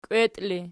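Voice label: "kweti" ergative, Archi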